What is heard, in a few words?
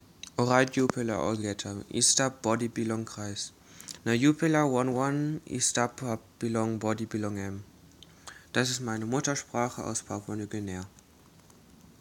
Tok Pisin; Wir möchten eine Gemeinschaft